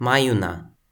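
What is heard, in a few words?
"majuna", Toki Pona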